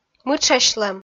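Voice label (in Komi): "мытшасьлӧм", Komi, female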